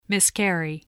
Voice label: "miscarry", voice, spelling